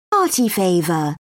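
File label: "party favor", British English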